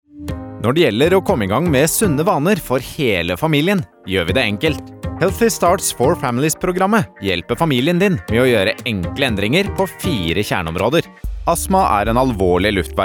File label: Norwegian narration